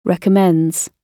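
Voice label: "recommends", British English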